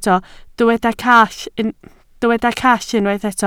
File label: "call", Welsh